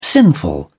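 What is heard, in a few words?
"sinful", British English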